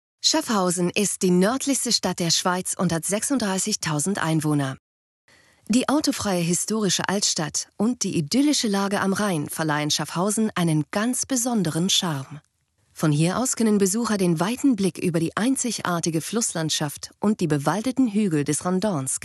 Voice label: deutsch

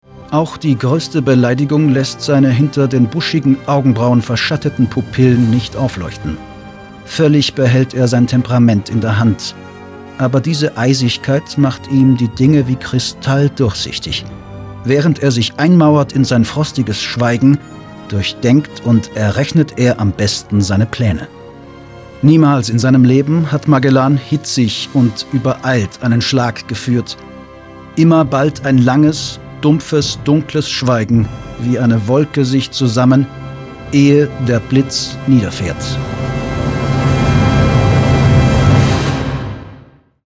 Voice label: Zitatstimme; Ö1 Radiokolleg

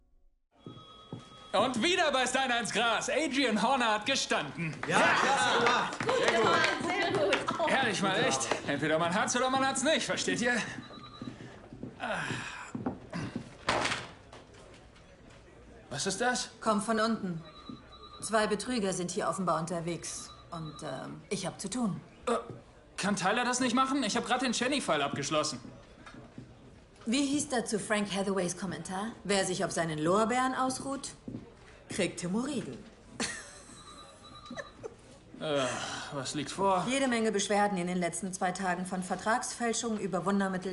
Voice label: genervt schnippisch; Shakespeare Hathaway

